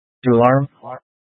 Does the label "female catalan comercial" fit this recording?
No